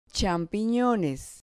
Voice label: "champinones", Spanish

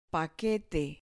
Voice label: "paquete", Spanish

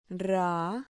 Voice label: "rra", Spanish syllable

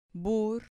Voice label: "bur", Spanish syllable

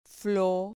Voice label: "flo", Spanish syllable

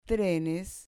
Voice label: "trenes", Spanish

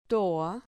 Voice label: "toa", Spanish

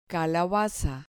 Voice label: "calabaza", Spanish

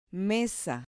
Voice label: "mesa", Spanish

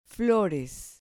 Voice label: "flores", Spanish

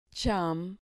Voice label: "cham", Spanish syllable

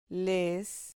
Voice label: "les", Spanish syllable

